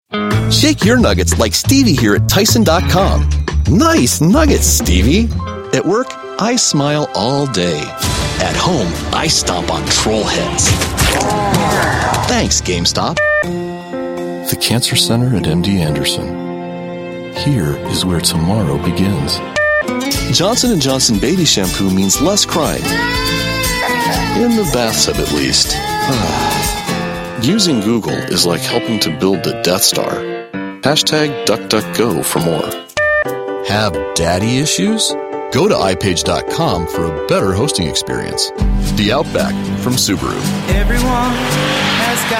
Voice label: english american voice over male commercial